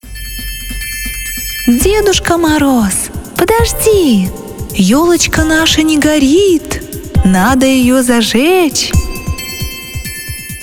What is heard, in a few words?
russian tv shows